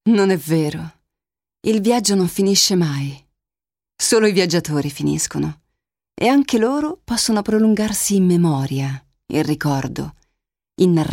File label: voiceover